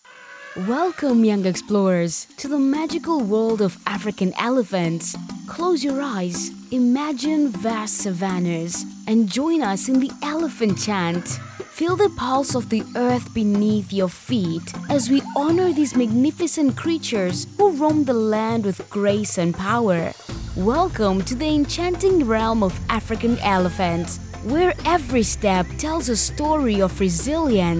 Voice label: Elearning Explainer, female